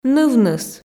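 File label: "нывныс", Komi, female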